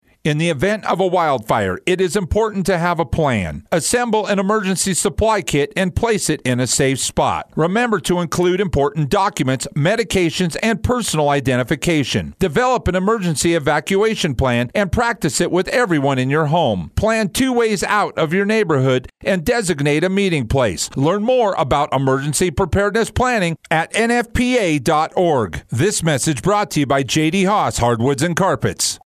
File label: Fire PSA